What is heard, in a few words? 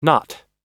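"not", American English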